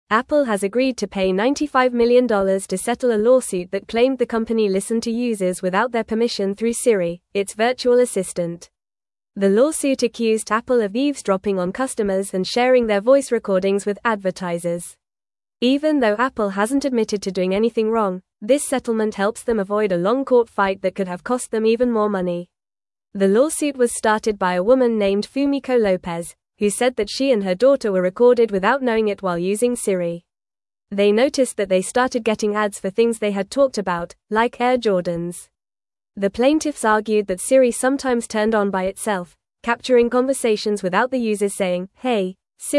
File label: English Upper Intermediate FAST Reading